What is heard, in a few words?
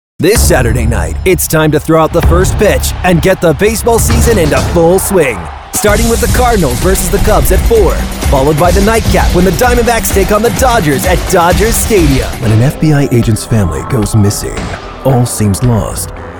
english american promos